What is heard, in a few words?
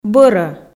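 "бӧрӧ", Komi, female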